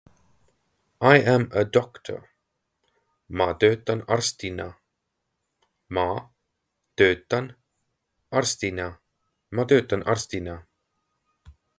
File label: I am a doctor, estonian; the talking estonian phrasebook